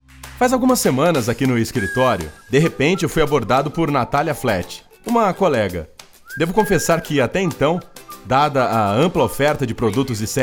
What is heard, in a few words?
PORTUGUESE